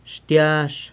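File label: "xtiazh", garlic, San Lucas Quiaviní Zapotec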